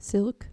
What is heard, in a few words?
"silk", dutch